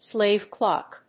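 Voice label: "slave clock", English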